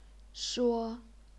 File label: "shuo1", chinese pinyin